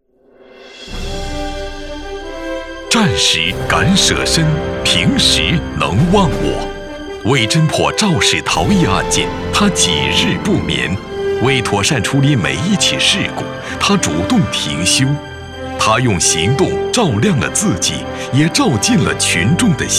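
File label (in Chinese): 颁奖词个人力度坚定铿锵抑扬顿挫, 男配音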